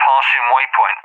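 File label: "passing waypoint", English, UK; Messages; DCS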